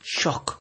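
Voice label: "shock", Nigerian Pidgin, male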